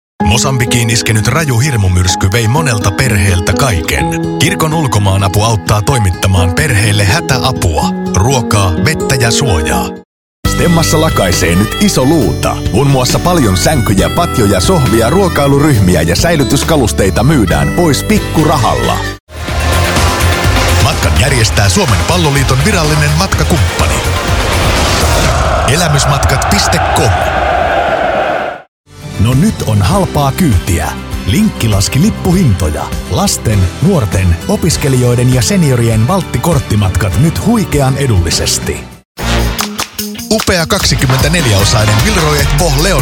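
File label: Finnish, Commercial; voice demos; GoLocalise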